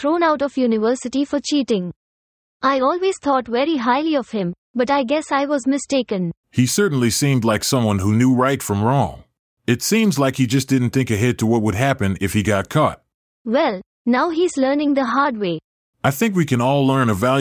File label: Language School Dialogue